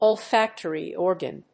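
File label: "Olfactory organ", English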